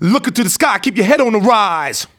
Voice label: RAP PHRASE; RAPPER 1-2